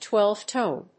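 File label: "twelve-tone", English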